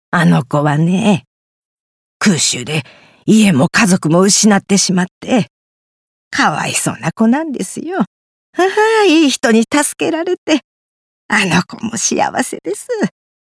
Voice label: 老人

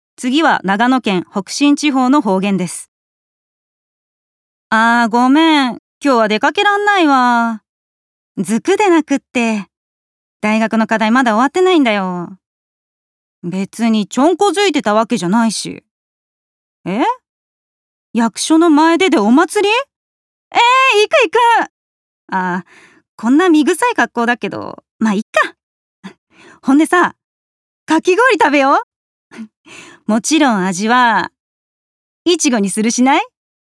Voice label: 長野県北信地方方言

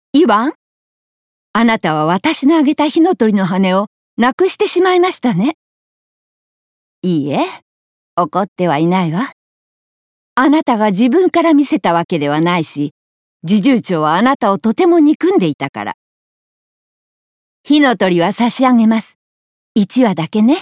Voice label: 寛容50代

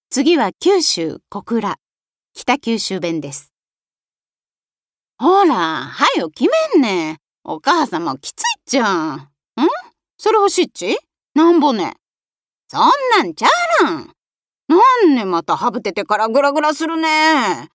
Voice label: 方言

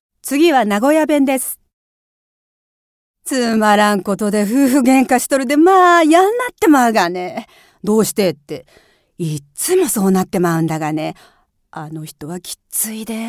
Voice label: 方言

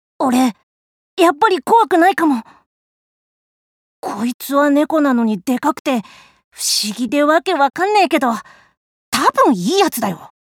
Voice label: 少年

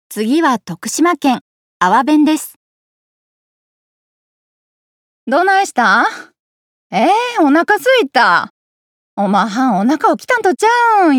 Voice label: 方言

